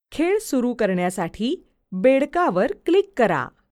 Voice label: Click Frog VO, Marathi